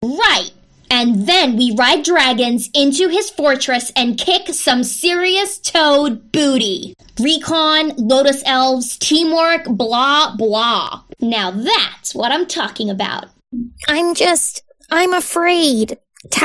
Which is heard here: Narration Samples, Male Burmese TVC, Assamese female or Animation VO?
Animation VO